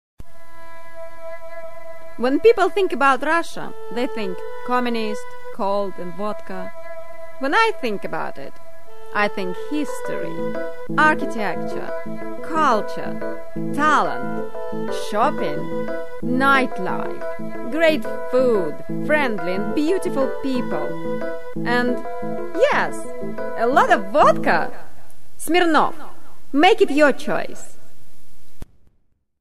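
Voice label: Russian English, female, commercial; accent of russia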